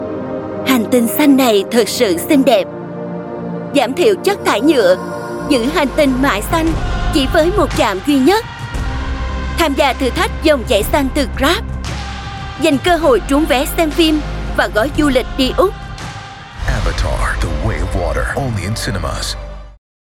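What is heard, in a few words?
Vietnamese South Female; Grab X Avatar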